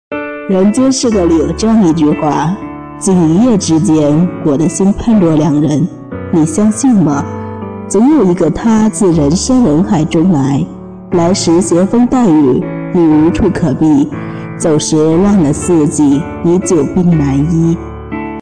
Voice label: 中性御姐音

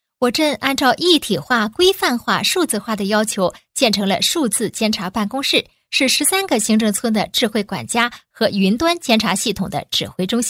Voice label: 乡镇工程 专题解说

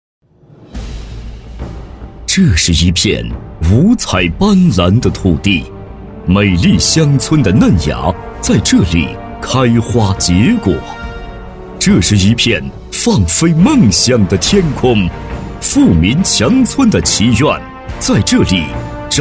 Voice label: 专题 党建 样音; 谷里

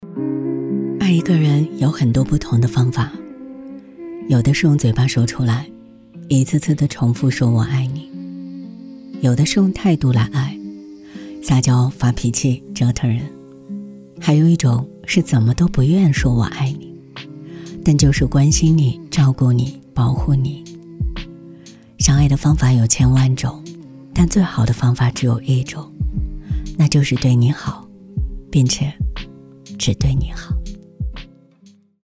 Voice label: 短视频试音女

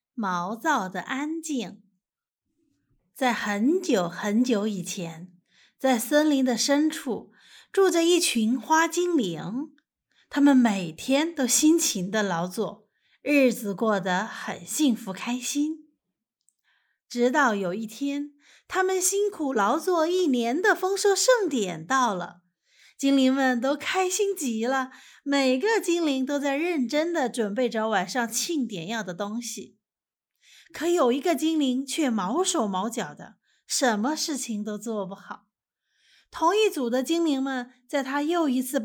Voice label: 儿童读物; 毛躁的安静

